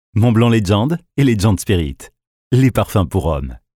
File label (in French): PUB VOIX OFF